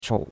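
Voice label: "chou3", Chinese; Cours-de-Chinois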